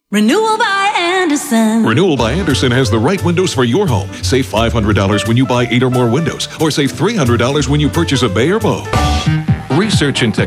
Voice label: Commercials